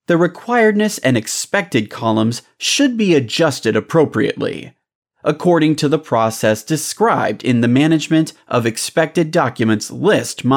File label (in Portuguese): Inglês Americano Homem Jovem